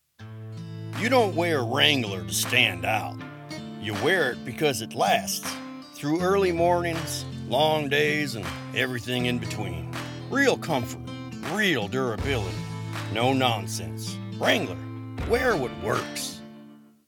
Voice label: Television AD, gritty, rugged, western, tough, strong; Wrangler